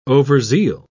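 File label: "overzeal", English, British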